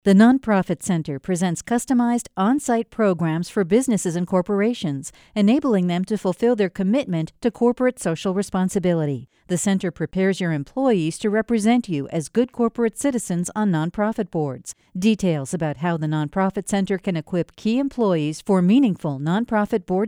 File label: radio spot; whyy